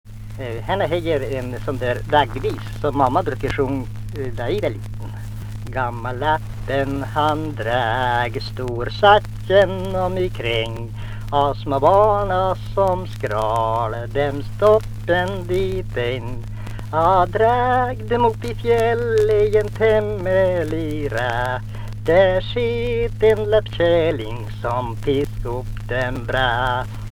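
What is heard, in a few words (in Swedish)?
Norsjö Västerbotten, dialekter; Folkminnen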